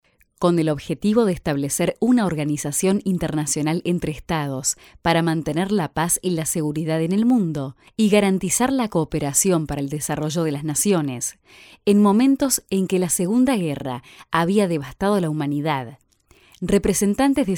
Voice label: spanish argentinian narration

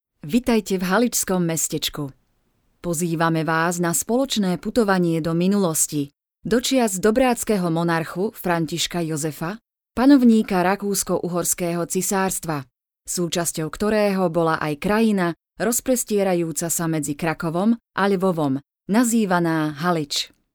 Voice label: Slovak narration

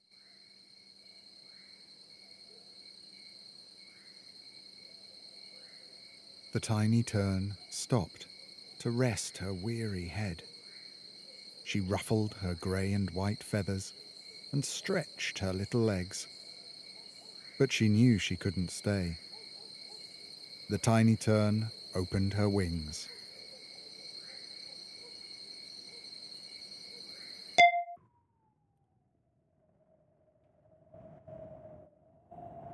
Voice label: english british audiobooks

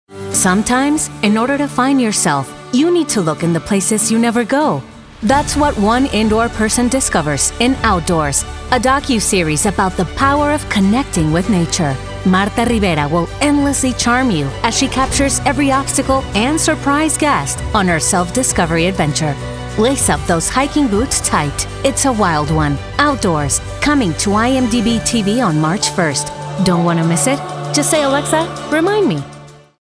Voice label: spanish mexican movie trailers

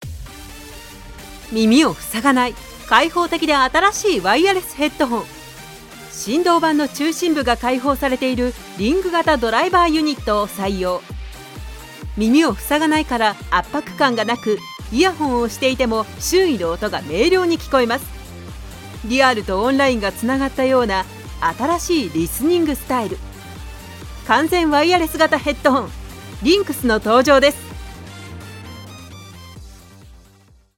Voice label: japanese commercial

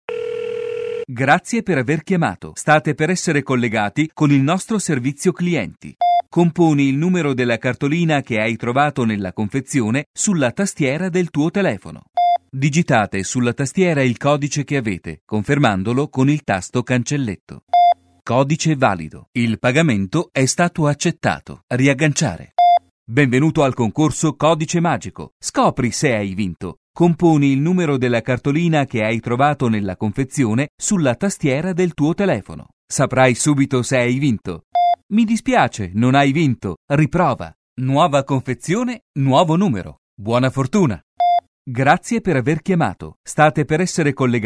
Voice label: italian ivr

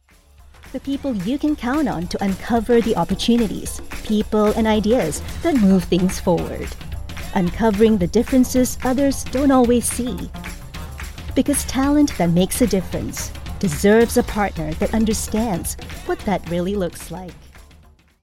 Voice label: english international; singaporean english

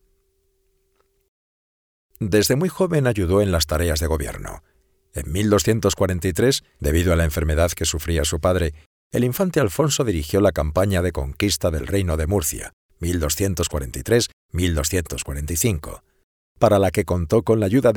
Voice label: spanish documentaries

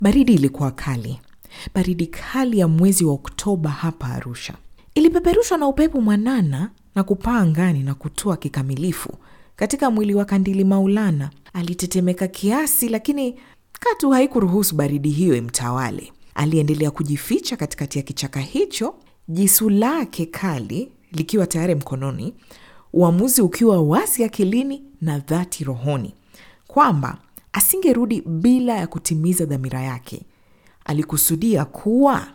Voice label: Swahili animation

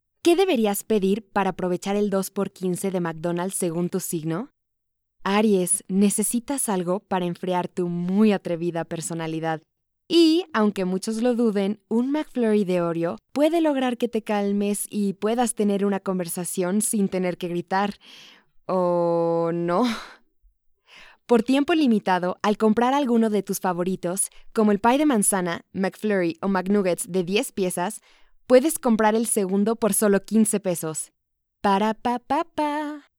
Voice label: spanish latin american promos

